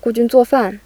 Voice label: Final Focus Question; natural speech